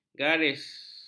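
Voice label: "garis", Malay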